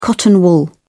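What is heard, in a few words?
"cotton wool", British English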